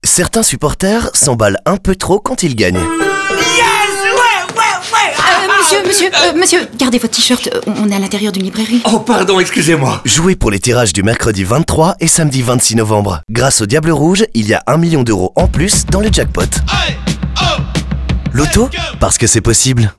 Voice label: Radiospot